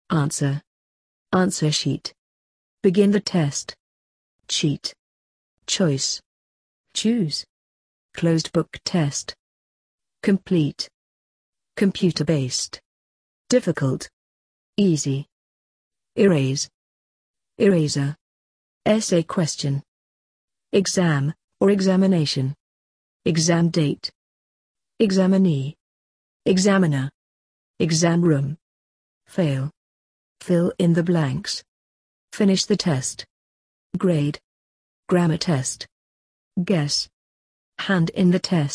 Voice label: uk; english exams

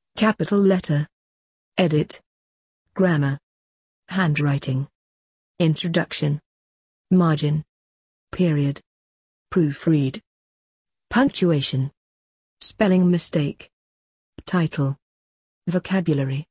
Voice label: uk writing

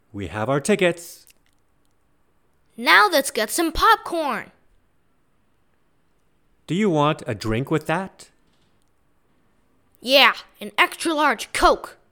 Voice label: movies dialog

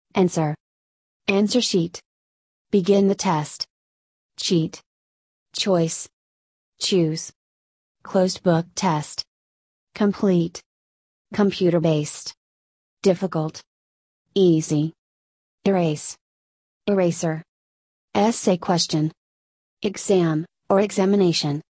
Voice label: usa; english exams

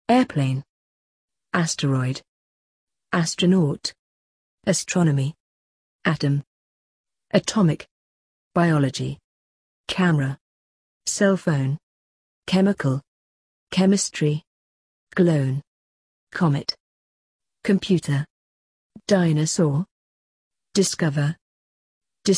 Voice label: uk science tech

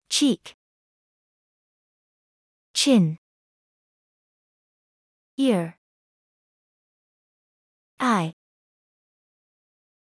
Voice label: usa

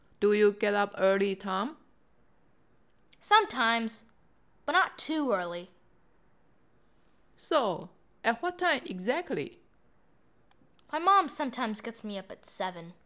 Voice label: dialog

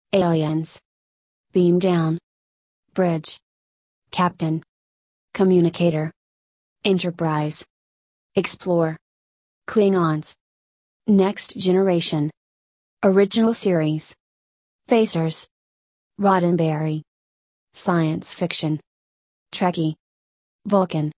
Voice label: usa; startrek

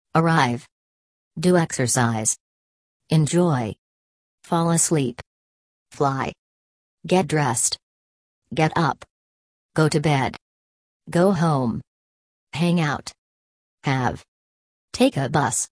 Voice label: american; daily activities

